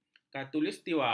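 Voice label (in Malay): "khatulistiwa", Malay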